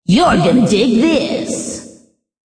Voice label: Gonna Dig This, Radio, Male; Radio Sound Effects

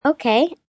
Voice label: Response "Okay", 1 girl, 8 yrs.; Talking; VoiceBox

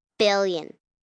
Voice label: Numbers Billion, 1 child, girl counting; Talking Sound Effects

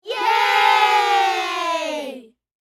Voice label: Kids Yeah; Human; Talking Sound Effects; BlastWave

